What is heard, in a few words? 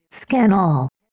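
Scan All, Female Voice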